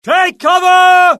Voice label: alert, UK; mohaa; dialogue